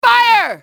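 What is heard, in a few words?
"fire", enemy, english, female; XBOX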